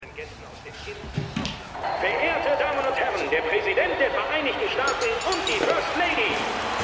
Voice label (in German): TV Sprecher Ansager; Stimmproben; American Crime Story